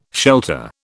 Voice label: "shelter", British